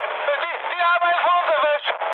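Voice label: German aircraft destroyed mood high, speech; War Thunder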